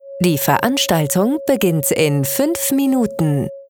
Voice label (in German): DE W, eventansagen